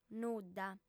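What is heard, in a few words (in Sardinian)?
"nudda", female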